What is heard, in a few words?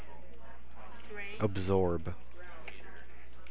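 "absorb", American, male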